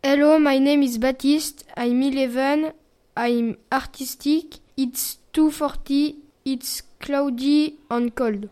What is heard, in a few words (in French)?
anglais